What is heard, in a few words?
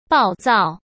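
"暴躁", Mandarin Chinese; chinese-flashcards; hsk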